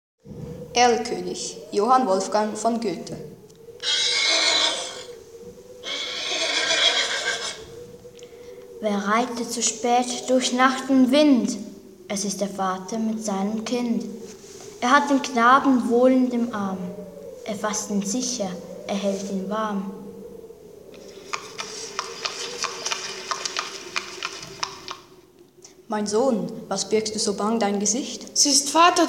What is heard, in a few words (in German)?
Hoerspiel; Erlkoenig; Schuelerarbeiten